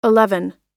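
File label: "eleven", American English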